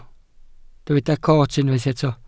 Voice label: "coot", Welsh

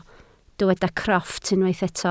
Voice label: "crofft", Welsh

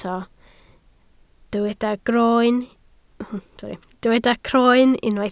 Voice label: "croen", Welsh

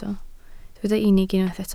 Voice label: "unig", Welsh

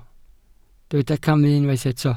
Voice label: "camu", welsh

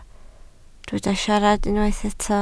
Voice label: "siarad", Welsh

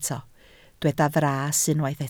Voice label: "fras", Welsh

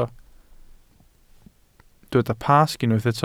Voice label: "Pasg", Welsh

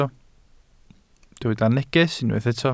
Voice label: "neges", Welsh

